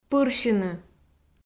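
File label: "пырсьыны", Komi, female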